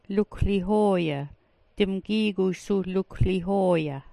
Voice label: "lukwlihooya", smalgyax